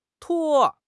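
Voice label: "tuo1", Mandarin Chinese; HSK4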